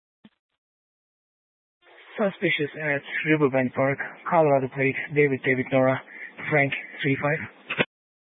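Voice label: sheriff dispatch radio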